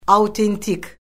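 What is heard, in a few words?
"autentik", Azerbaijani; Orfoqrafiya Lüğəti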